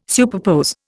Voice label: "superpose", Indian

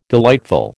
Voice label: "delightful", American

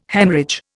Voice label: "Haemorrhage", Indian

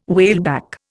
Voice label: "whaleback", Indian

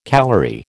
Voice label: "calorie", American

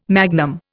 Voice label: "magnum", Indian

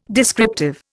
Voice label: "descriptive", Indian

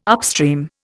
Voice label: "upstream", Indian

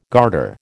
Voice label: "garter", American

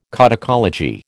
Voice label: "codicology", American

